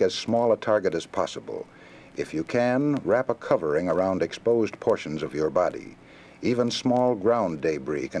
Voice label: tornado announcement safety; ESSA